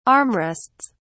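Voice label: "armrests", British English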